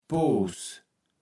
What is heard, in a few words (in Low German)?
"Poos", Platt